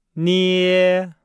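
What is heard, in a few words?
"nie", Chinese; Nihao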